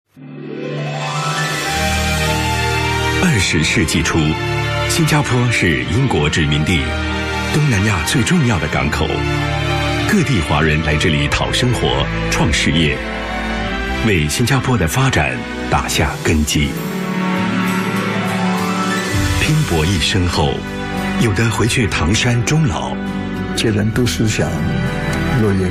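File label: Mandarin Current Affairs Narration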